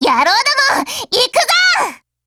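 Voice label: ATTACK, Japanese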